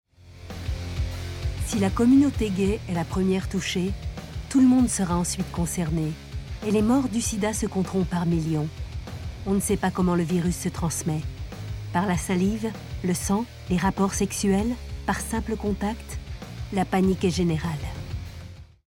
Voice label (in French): docu; arte